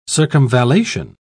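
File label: "circumvallation", British English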